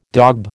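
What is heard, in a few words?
"daub", American English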